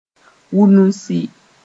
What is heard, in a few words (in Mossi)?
"wũnusi", moore; to get infected